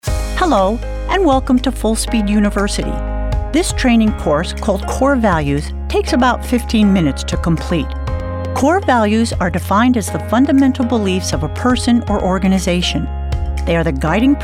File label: Corporate Narration